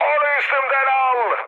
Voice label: aircraft damaged mood high, speech; War Thunder